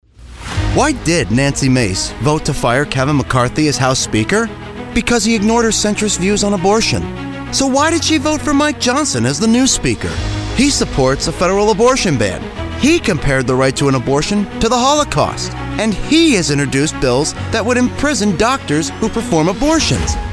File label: Ad; Anti Nancy Mace